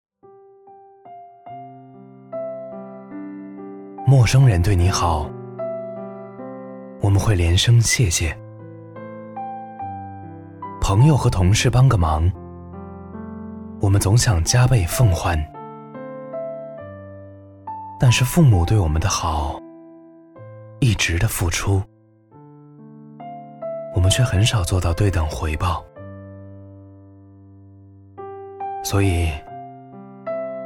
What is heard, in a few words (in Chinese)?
男 温暖旁白; 月饼